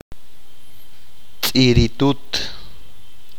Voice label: butterfly, achi